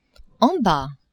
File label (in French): "en bas", French; maison